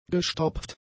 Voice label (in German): "gestopft", german; adjectives